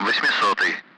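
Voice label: Speech, Russian, 8th; Allied Flight; DCS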